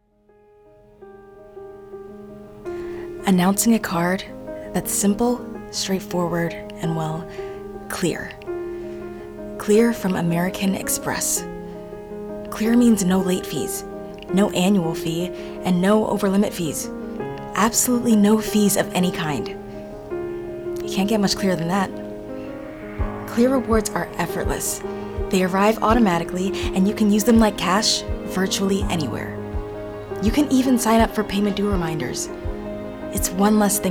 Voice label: VO Reel